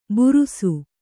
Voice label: "ಬುರುಸು", kannada

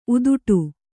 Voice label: "ಉದುಟು", Kannada

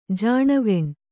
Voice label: "ಜಾಣವೆಣ್", Kannada

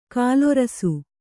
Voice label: "ಗೋಲರಸ", Kannada

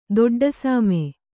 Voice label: "ದೊಡ್ಡಸಾಮೆ", Kannada

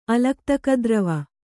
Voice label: "ಅಲಕ್ತಕದ್ರವ", kannada

